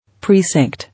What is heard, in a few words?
"Precinct", English